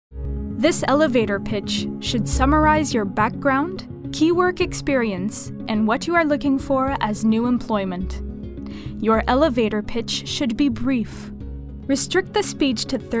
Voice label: E-Learning ENG

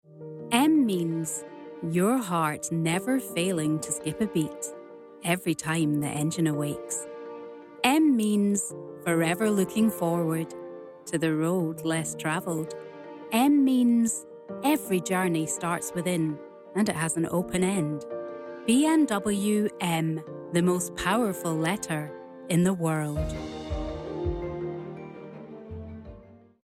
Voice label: Commercial; BMW

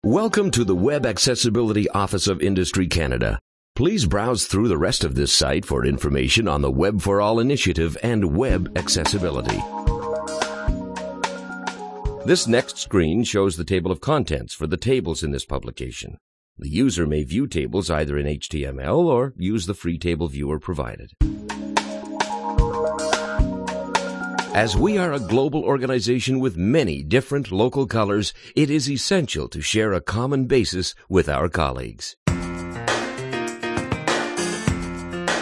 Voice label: Narration

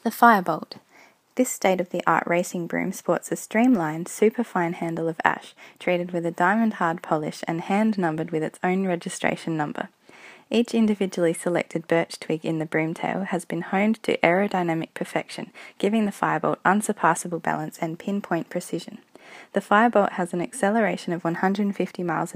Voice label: Accent

